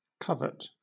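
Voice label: "covert", British English; pronunciations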